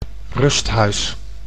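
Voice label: "rusthuis", Dutch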